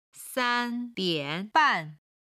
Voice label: "san1 dian3 ban4", Chinese; 時間に関する中国語表現